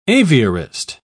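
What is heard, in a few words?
"aviarist", British English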